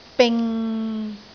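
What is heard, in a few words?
"bing6", Cantonese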